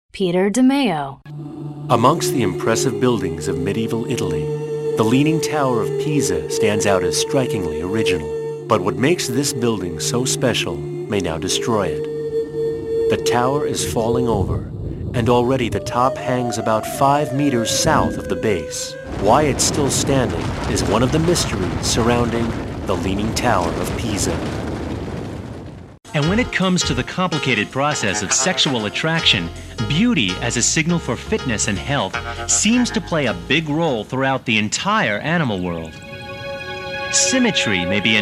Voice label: Narr